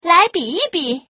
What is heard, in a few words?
Woman